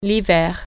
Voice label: "winter", French

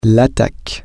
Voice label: "the attack", French

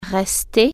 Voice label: "rest", French

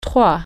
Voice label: "three", French

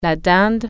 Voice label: "turkey", French

